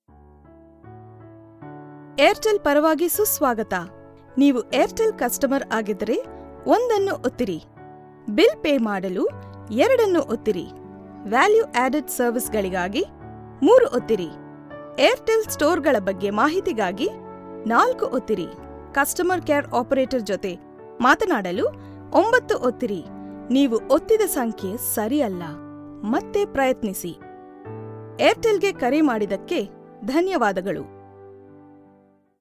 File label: IVR, Kannada